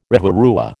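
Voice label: "rewarewa", American English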